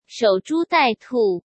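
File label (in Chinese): "守株待兔", Mandarin Chinese; chinese-flashcards; hsk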